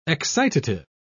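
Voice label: "excitative", British English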